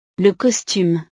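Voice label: "le costume", French; ropa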